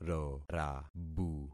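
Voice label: "rorabu", concatenated syllables; Affixing experiment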